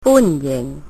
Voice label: welcome, Cantonese; 歡迎; fùnyìhng